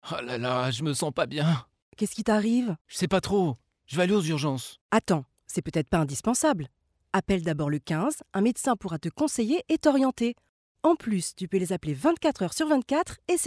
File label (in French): radio, urgences; ARS